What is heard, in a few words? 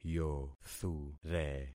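"yothure", concatenated syllables; Affixing experiment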